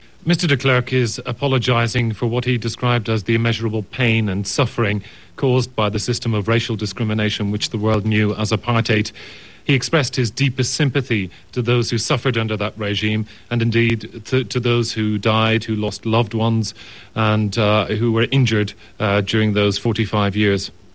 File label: British RP, dialect